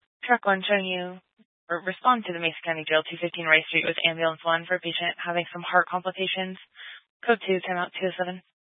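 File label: Fire Dispatch, Scanner audio recording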